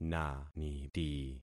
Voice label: "naniti", concat syllables; Affixing experiment

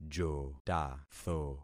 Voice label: "jotatho", concat syllables; Affixing experiment

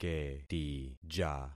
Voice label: "ketija", concat syllables; Affixing experiment

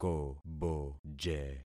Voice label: "koboje", concat syllables; Affixing experiment

